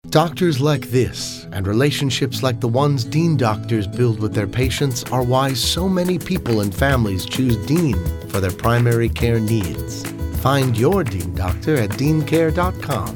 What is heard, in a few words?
Voice Demo